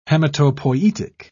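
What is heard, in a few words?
"hematopoietic", British English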